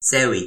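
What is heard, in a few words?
"sewi", toki pona; lipu linku; kalama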